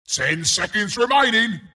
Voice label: announcer count pick; Bristleback announcer

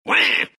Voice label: anger; Tinker

